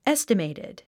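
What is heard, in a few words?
"estimated", English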